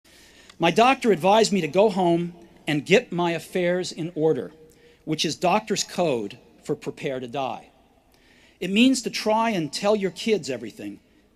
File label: Commencement Address; Steve Jobs; 2005; Stanford